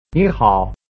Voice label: "nihao", Chinese